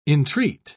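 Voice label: "intreat", English, uk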